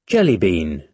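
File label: "jelly bean", British English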